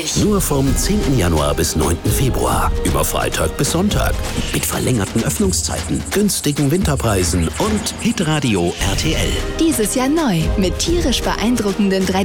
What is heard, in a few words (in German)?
magisch, radiowerbung; hitradio rtl